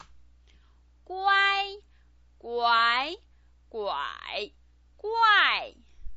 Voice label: "guai", Chinese pinyin